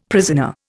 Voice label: "prisoner", Indian; Dictionary